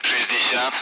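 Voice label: Speech, Russian, Numbers, 60; Allied Flight; DCS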